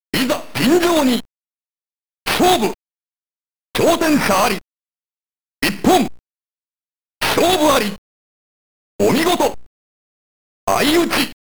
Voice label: Kuroko Voice (Announcer)

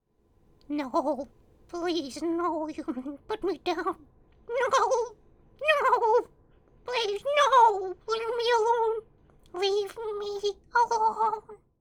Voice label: Male Beg

